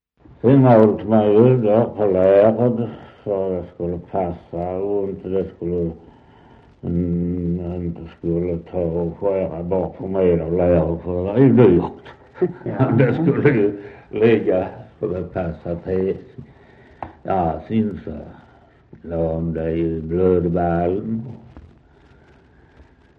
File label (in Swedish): Västra Torup, dialekter; Folkminnen